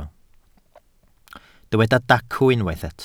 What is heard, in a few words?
"dacw", Welsh